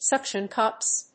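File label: "suction cups", English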